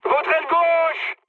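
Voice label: left wing, mood high, French; War Thunder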